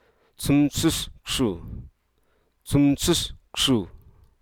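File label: Hruso Aka; crimson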